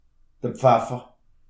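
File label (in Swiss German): "pfeffer", Alsatian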